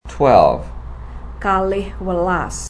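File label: "Kalih welas" Javanese; 12 twelve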